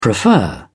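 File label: "prefer", English, British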